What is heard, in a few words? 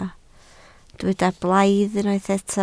"blaidd", Welsh